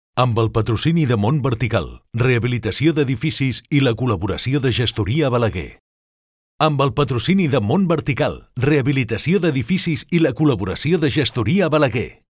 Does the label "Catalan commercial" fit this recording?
Yes